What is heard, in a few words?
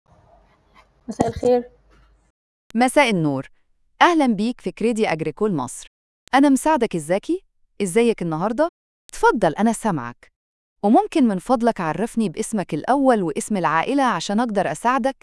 call recording